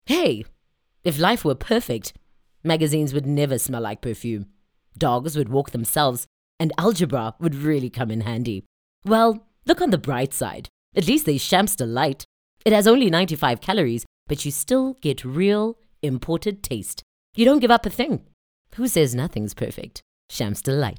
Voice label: English conversational; OSM Talent